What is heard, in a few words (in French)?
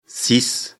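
"six", French; afrikalan; lecture fr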